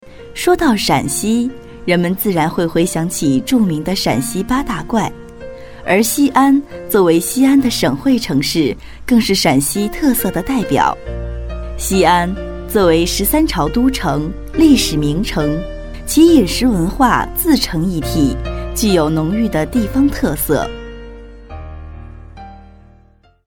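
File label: Female Chinese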